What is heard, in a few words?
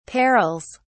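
"perils", British English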